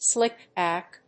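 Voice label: "slicked back", English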